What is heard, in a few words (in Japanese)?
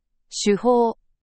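"shuhou", Japanese; N4 level words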